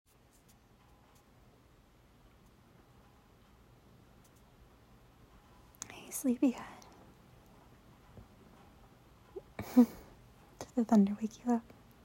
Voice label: Hey, Sleepyhead, improv, rainy night in, rambly start; f4m; gfe